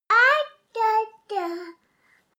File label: baby talk count